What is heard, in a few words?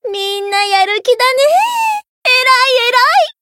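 Voice encount, Japanese; ilulu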